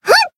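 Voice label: Voice attack Japanese; leviachan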